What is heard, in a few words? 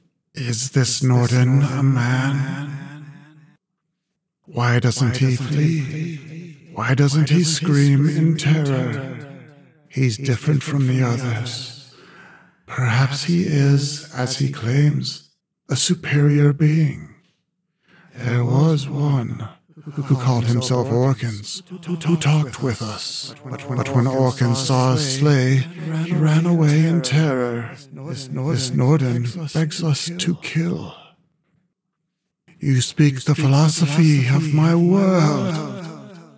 Male - Sinister - Post Effects Added; The Whispering Spheres; Industrial Foundry Work